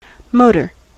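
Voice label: "motor", US English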